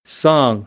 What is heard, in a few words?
"song", American, English; ɑː - ɒ